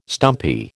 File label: "stumpy", American